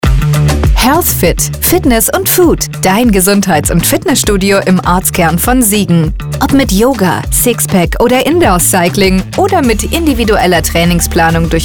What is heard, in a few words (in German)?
Beispielspot Fitnessstudio